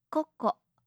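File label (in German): "koko", japanische Mädchennamen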